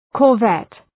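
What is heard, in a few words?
"corvette", English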